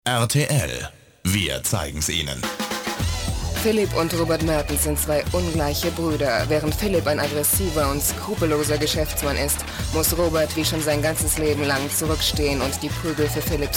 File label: radio spot; evosonic; rtl